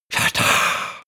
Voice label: male whisper spell

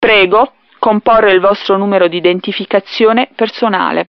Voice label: indication number italian

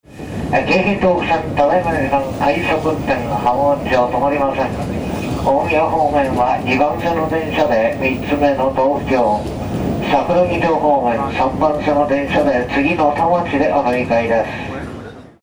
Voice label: train announce tokyo

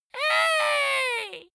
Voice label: dwarf insulted "hey"

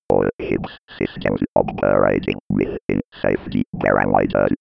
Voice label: computer voice

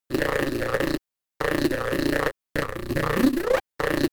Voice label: robot talking droid

